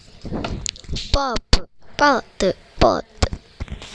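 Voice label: "bulb", spoken word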